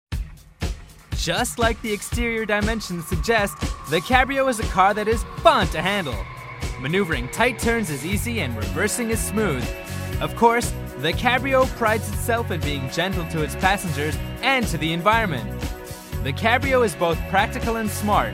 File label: usa man